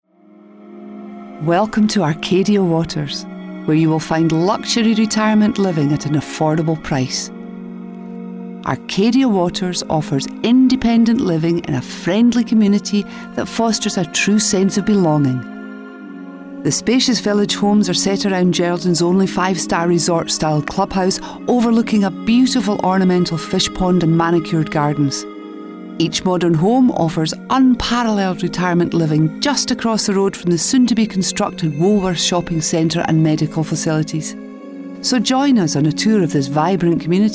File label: Corporate Commercial; Geraldton